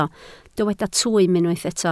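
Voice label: "twym" Welsh, spoken word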